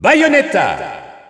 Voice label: French Announcer; Bayonetta; SSBU